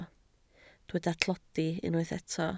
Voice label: "tlodi", Welsh

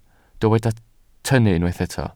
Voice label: "tynnu", Welsh

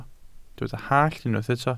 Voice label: "hallt", Welsh

